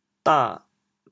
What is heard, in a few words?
"dan3", chaoyang